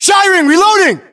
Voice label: US inform reloading, battlechatter, english